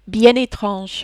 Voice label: "bien etrange", French